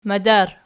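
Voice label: "madar", nominative, Archi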